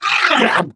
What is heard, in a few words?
ballbot pain; player; Portal 2